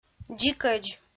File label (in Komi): "дзикӧдз", Komi